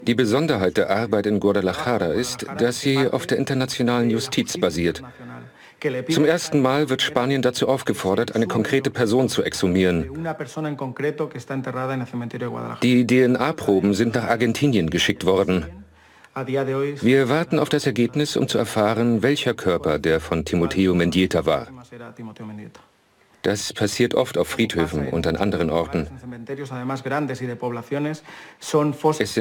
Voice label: Voice Over